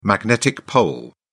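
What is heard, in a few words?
"magnetic pole", British English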